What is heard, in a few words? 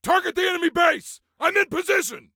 Target Base, Soldier Male; Soldier Male 03